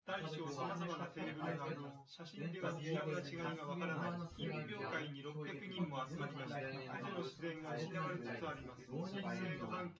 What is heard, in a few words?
male, synth conv, 250ms, 5cm; SiSEC08; SiSEC underdetermined